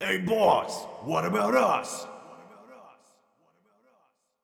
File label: thug voice; ocean-commotion